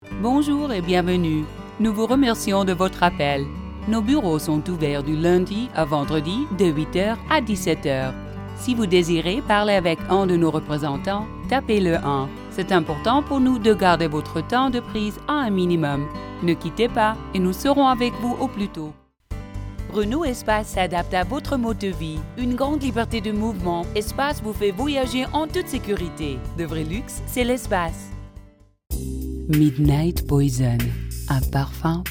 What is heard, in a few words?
French